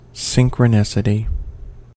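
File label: "synchronicity", American English; pronunciation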